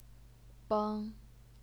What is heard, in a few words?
"beng1", Chinese pinyin